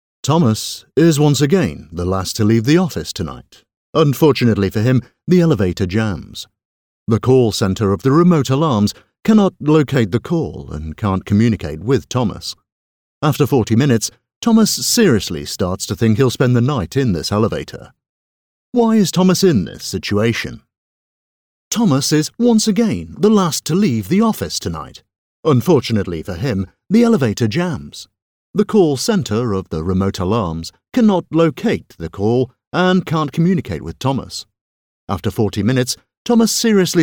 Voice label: voiceover commercial business motion graphic

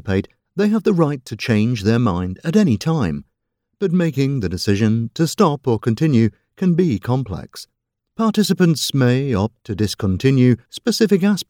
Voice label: voiceover narrative medical clinical trials

